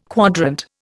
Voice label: "quadrant", Indian

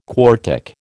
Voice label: "quartic", American

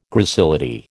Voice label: "gracility", American

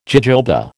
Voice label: "jojoba", American

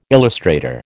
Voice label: "illustrator", American

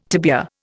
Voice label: "tibia", Indian

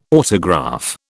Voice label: "autograph", British

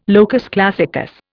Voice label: "locus classicus", Indian

